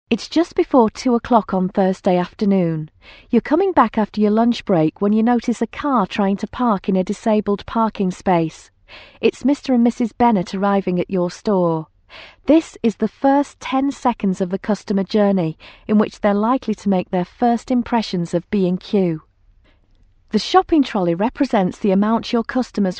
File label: British F, British English